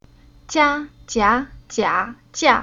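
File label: "Jia", Chinese syllable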